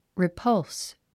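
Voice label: "repulse", English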